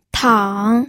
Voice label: "tang3", pinyin